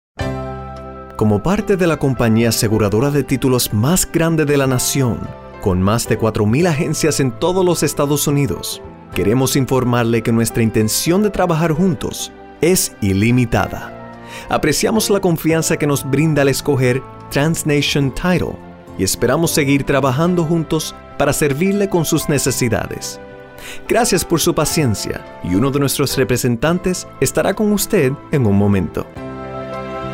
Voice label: spanish; audio on hold; spanish speaking